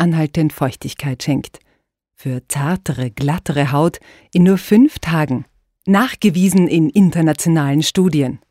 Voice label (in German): Werbung Beauty